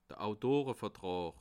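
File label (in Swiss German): "autorevertraa", Bas Rhin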